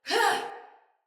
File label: Attack Sinecure Soldier Female